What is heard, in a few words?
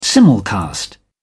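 "simulcast", British English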